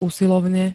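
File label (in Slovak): "usilovne", Slovak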